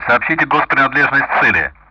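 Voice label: Speech Sound Russian; Common Player Messages DECLARE; DCS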